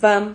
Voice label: "vam", Silabas

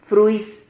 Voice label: "fruis", Silabas

